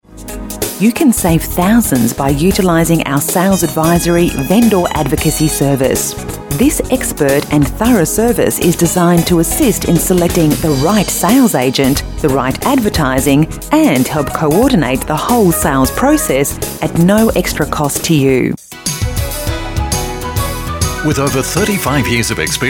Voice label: property real estate messages on hold